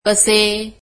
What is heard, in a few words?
marathi how